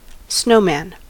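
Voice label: "snowman", US English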